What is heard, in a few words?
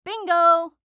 Cartoon Voice Female; Cartoon Voices; Blastwave